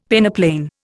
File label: "peneplain", Indian; Dictionary